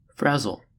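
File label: "frazzle", American English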